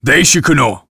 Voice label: emotion angry